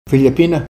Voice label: filipina, Ninilchik Russian